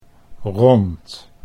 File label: "rond", Dutch